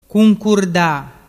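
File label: "cuncurda", Vernazzese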